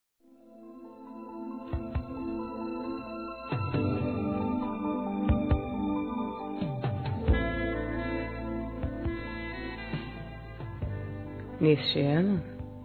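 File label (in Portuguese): ANO NOVO P AMIGA, Feminino; Telemensagens; Natal e Ano novo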